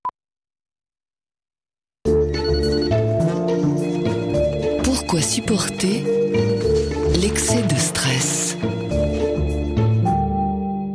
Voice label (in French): voix tv; Sedatif pc